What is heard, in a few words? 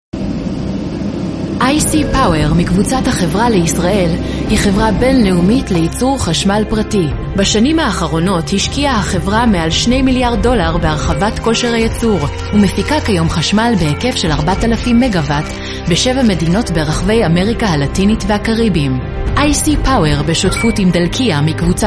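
Hebrew F Documentary, female; voice over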